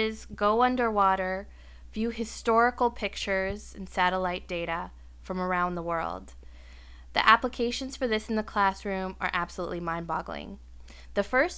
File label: google earth voice tour